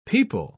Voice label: "pipal", pronounce